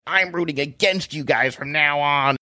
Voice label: saying im rooting against you guys from now on funny